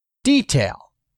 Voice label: "detail", English; 3000 words